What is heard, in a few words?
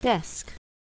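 "desk", English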